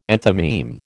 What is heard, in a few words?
"enthymeme", American